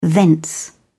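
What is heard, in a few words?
"thence", British English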